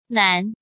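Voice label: "Nán", Chinese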